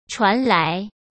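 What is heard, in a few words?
"传来", Mandarin Chinese; chinese-flashcards; hsk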